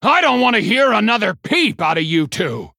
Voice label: Male Human Emote Response; Thelwater; Scabbs